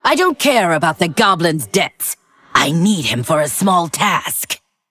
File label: Female Undead